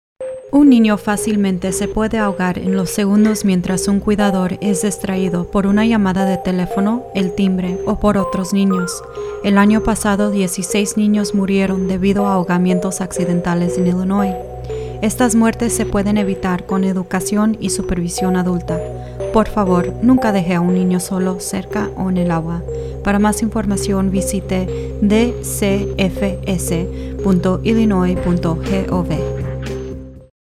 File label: SPANISH, public service announcements; drowning prevention; DCFS